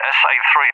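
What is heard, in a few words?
"SA-3", English, UK; AirDefence; DCS; Allied Flight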